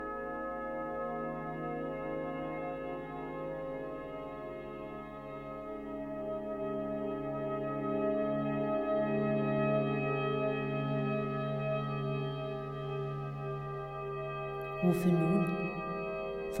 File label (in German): Meditation mit dem weißen Farbstrahl mit Musik